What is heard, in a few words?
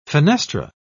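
"fenestra", English, British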